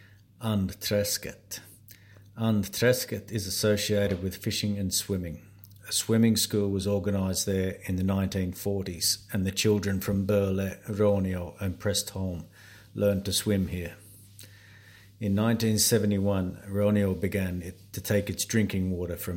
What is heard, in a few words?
English voice; Andtrasket